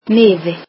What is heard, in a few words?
icelandic ticket